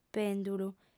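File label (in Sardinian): "pèndulu", female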